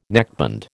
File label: "neckband", American